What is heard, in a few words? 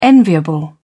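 "enviable", English, British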